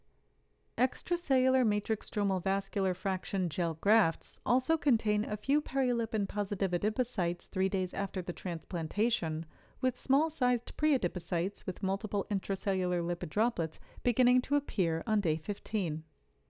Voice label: Voiceover